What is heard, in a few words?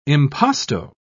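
"impasto", British English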